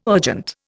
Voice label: "urgent", Indian